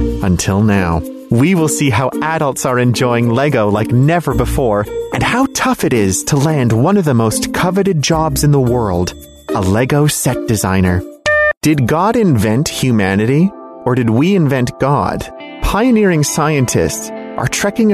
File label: english american voice over male narrator